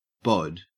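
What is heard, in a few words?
"bod", English, Australian accent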